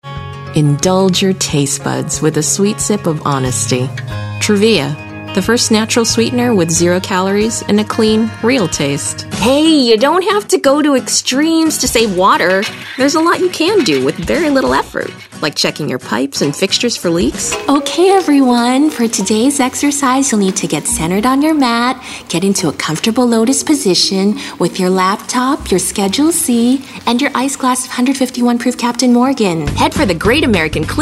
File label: commercial